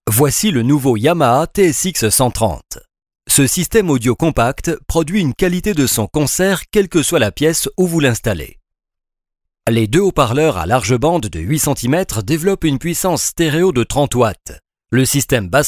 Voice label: male french voice over talent narrative

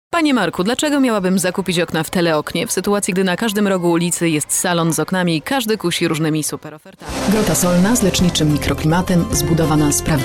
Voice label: female polish voice over sales

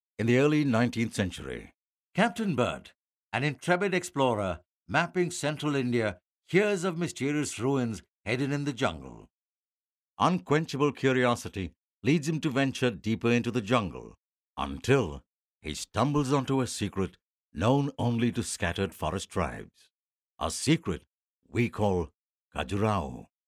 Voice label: male indian voice over narrative

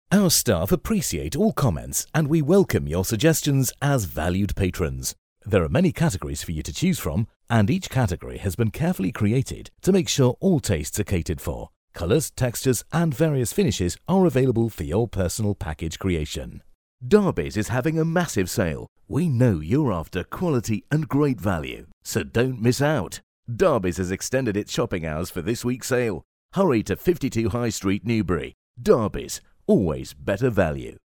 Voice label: male british voice over upbeat, english british

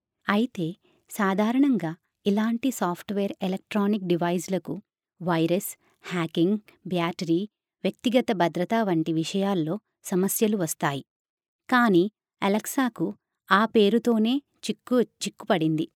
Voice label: female telugu voice over narrative

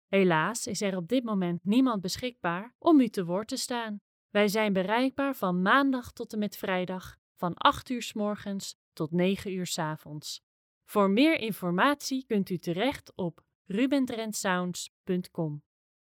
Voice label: female dutch voice over narrative

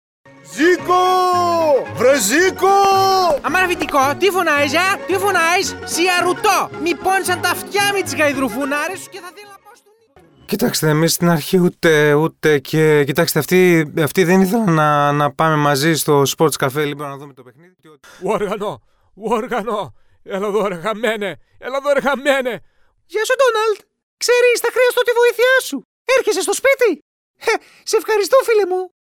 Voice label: male greek voice over character

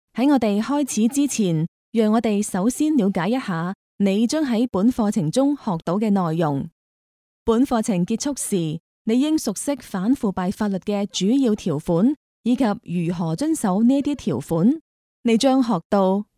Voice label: female cantonese voice over narrative

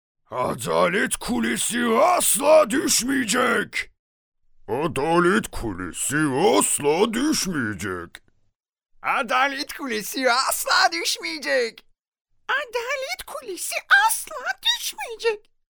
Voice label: male turkish voice over talent character

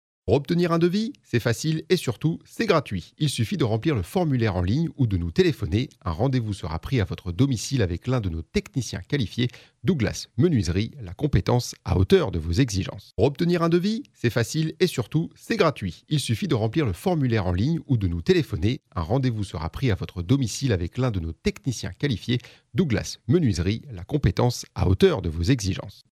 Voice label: male french voice over sales, france

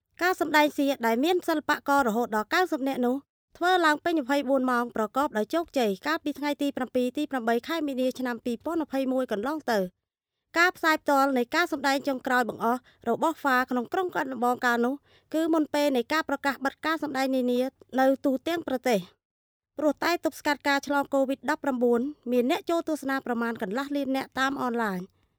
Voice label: female khmer voice over narrative, cambodian

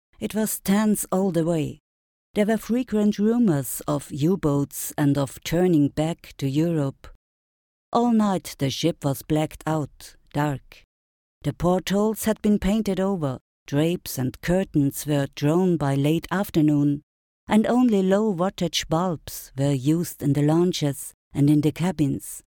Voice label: female german voice over english

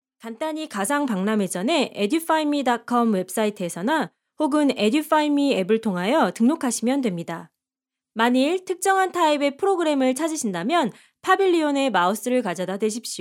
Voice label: female korean voice over sales

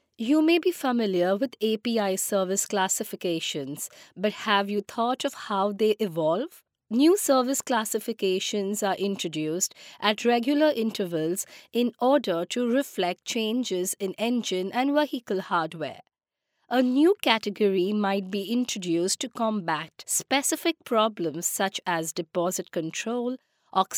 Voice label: female indian voice over narrative

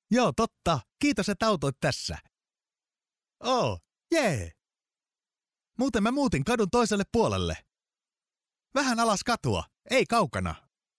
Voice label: male finnish voice over talent character

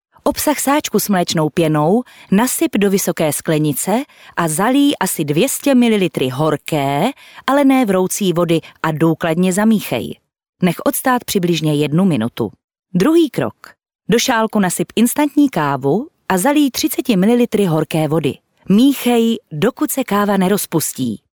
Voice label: female czech voice over narrative

